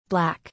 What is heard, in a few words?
"Black", English